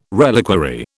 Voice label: "reliquary", British

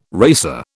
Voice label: "racer", British

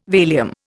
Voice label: "Valium", Indian

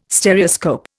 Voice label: "Stereoscope", Indian

